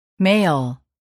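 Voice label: "mail", English word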